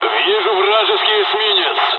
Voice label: dialog, dialogs russian; midway; War Thunder